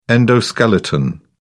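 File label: "endoskeleton", British English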